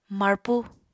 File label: "marpu", Apatani